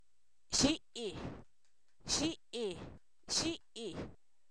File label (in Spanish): gripa, Zapoteco, san miguel albarradas; enfermedades